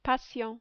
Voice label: "patient", French